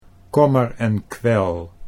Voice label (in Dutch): "kommer en kwel", Dutch